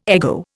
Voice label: "ego", Indian